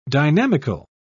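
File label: "dynamical", British English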